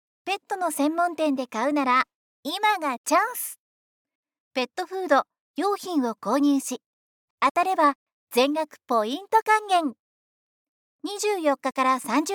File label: female